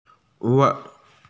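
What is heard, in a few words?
"socks", Mandarin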